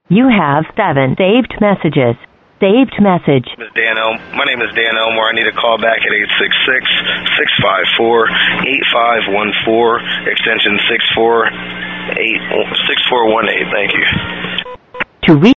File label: Voicemail